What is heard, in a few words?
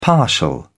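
"partial", British English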